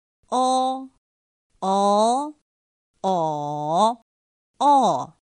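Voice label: "ō ó ǒ ò", Chinese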